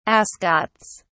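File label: "ascots", British English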